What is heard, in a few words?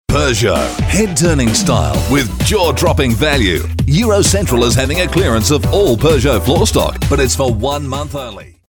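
English Australian, male, commercial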